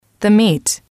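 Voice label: "the meat", English, female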